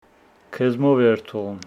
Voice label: Homshetsi i will go with you